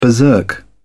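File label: "berserk", British English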